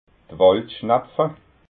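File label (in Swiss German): "waldschnapfa", Alsatian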